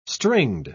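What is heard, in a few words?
"stringed", British English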